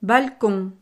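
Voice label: "balcon", French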